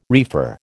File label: "reefer", American